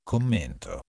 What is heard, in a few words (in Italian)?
"commento", Italian